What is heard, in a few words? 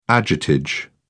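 "agiotage", British English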